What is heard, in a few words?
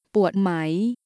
hurt voice